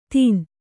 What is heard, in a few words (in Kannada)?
"ದಿನ", Kannada